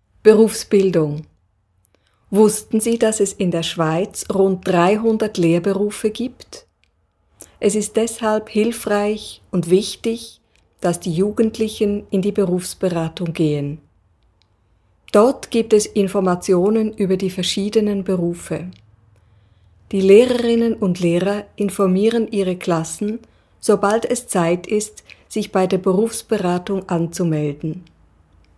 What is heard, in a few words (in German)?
deutsch